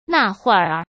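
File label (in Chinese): "那会儿", Mandarin Chinese; hsk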